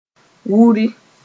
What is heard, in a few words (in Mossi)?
"wuri", moore; rub